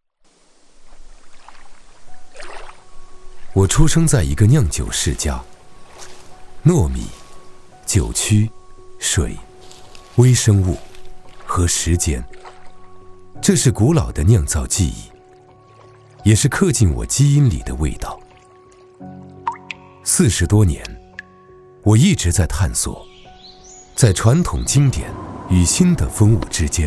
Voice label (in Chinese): 男 高端 质感 自然; 风物流转